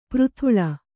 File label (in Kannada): "ಪೆರೆದಲೆ", Kannada